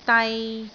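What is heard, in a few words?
"dai3", Cantonese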